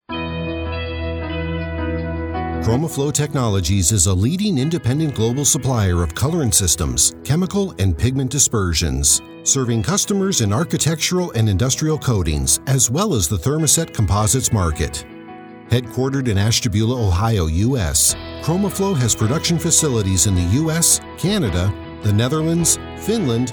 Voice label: Voice Talent On Hold Message